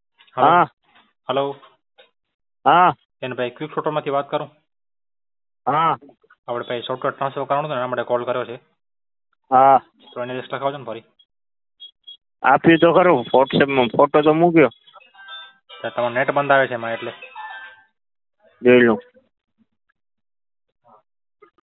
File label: Call recording